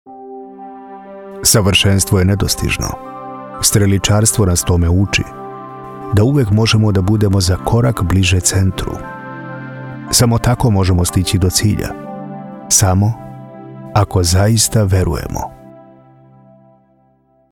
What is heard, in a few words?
narrator calm inspirational serbian voice over